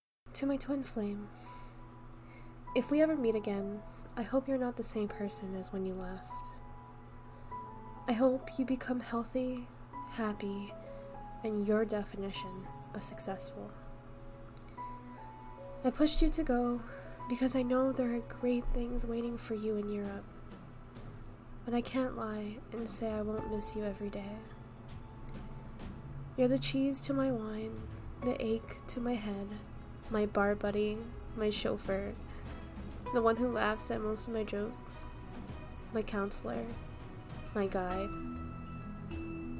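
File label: Female letter, farewell, nicknames, background music; To my Twin Flame Part 2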